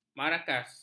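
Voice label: "marakas", Malay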